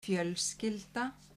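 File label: "fjölskylda", Icelandic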